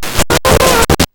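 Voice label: radio vox right; AKAI; SHORTFX KIT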